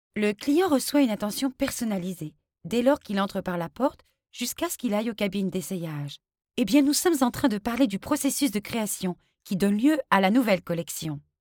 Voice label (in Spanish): publicidad video